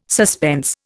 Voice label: "suspense", Indian